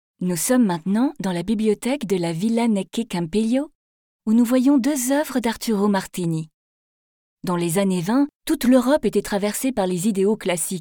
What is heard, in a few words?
VO Audio guide; Villa Necchi Campiglio; biblioteca